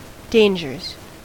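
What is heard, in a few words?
"dangers", US English; pronunciations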